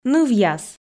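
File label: "нывъяс", Komi, female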